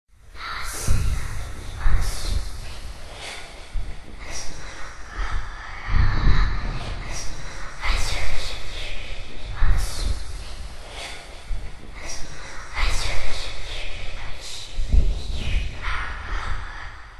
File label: creepy Child whispers sound